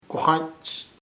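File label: rock piedra, Chamacoco word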